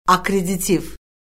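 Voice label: "akkreditiv", Azerbaijani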